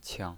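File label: "qiang" neutral tone, pinyin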